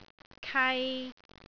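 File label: "kai3", Cantonese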